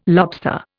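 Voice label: "lobster", Indian English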